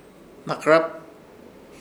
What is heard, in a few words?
"Hnakɨrap" hombre, Ishir Chamacoco